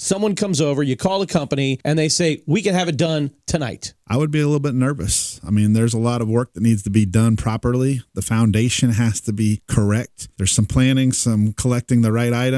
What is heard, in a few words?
Urgent Appeal